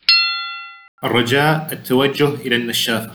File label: Exit Wash Bay with effects Arabic